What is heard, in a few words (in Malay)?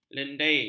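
"lendair", Malay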